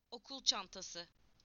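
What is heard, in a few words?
"schoolbag", Turkish; PictureDictionary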